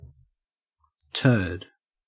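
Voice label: "turd", Australian English; pronunciations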